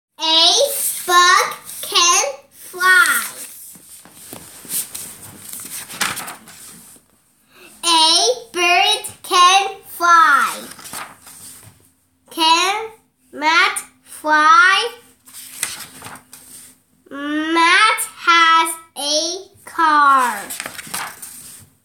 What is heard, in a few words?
Reading The Jet, Bob Books; A bug can fly